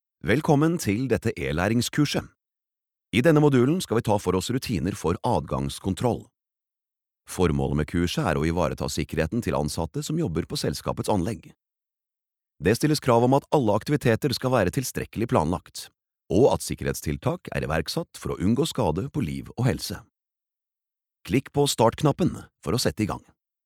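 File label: Norwegian elearning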